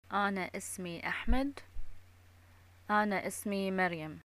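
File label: my name is, Bahraini arabic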